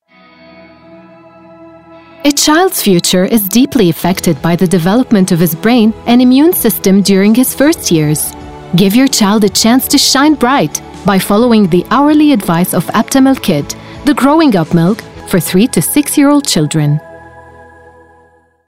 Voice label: promo; Aptamil